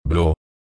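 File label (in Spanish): "blo", Spanish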